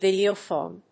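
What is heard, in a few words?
"videophone", English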